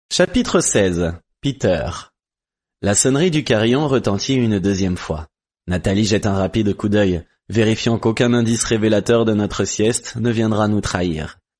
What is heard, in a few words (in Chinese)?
法语男声